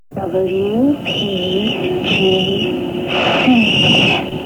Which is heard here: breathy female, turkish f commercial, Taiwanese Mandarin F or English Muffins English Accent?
breathy female